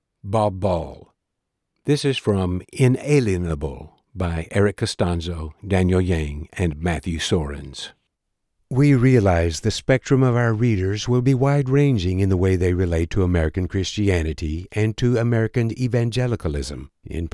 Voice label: Special Interest Ecclesiology, audiobook narration